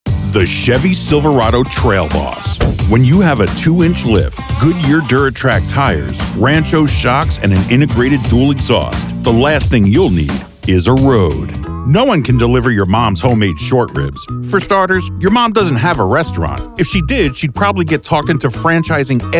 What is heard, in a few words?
vo commercial